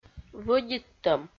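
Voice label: "вӧдиттӧм", Komi, female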